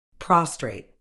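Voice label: "prostrate", medical English, British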